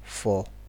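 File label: "for", Nigerian Pidgin, male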